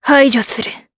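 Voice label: Vox Skill, voices, Japanese; Tanya; kingsraid audio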